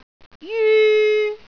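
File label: "jyu1", Cantonese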